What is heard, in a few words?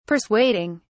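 "persuading", British English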